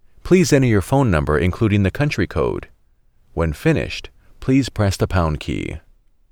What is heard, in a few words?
English phone number with country code